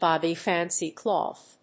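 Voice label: "dobby fancy cloth", English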